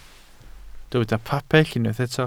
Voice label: "pabell", Welsh